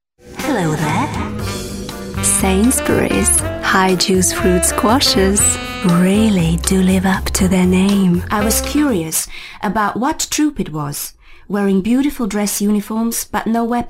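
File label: Croatian, Accented English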